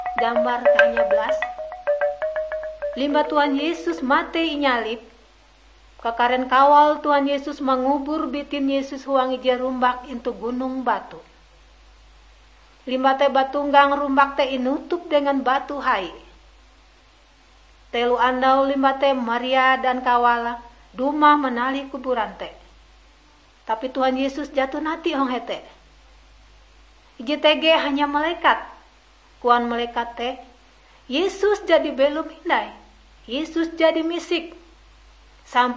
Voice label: kabar bahalap good news ngaju, drama